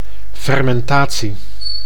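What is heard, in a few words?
"fermentatie", Dutch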